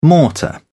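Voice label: "mortar", British English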